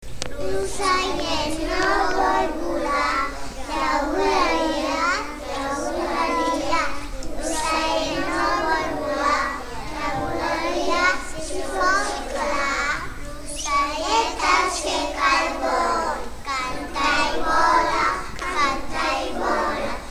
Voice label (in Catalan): Poema Locellet, P3 RECITA EL POEMA DE “L’OCELLET”; poema que hem gravat a P3 per celebrar la primavera